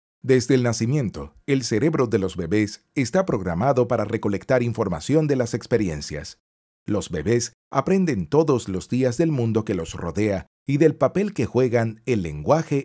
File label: Phonemes Spanish